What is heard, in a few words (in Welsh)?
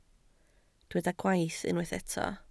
"gwaith", Welsh